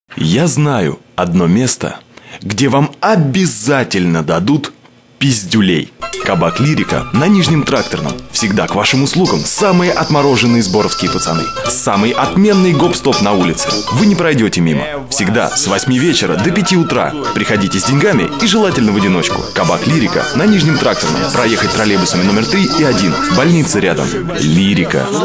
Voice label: radio reklama